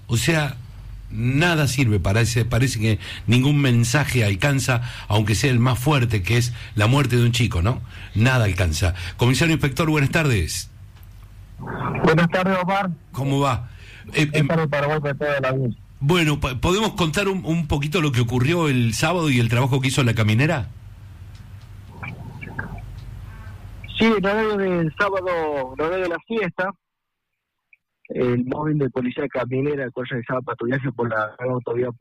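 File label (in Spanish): Radio Policial Picadas Ilegales; Mitre Cordoba; Siesta Animal; Autovia Punilla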